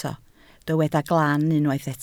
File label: "glan", Welsh